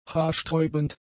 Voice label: "haarsträubend", German; adjectives

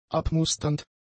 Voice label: "abmusternd", German; adjectives

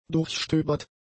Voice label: "durchstöbert", german; adjectives

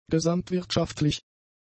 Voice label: "gesamtwirtschaftlich", German; adjectives

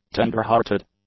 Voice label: "tenderhearted", English; adjectives

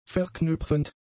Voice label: "verknüpfend", German; adjectives

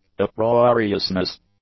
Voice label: "uproariousness", English

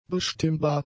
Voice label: "bestimmbar", German; adjectives

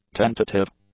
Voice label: "tentative", English; adjectives

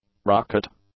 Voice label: "rocket", English; nouns

